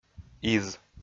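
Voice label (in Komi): "из", Komi, male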